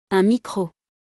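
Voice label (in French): "Un micro", French; vocabulaire; le lexique de l'informatique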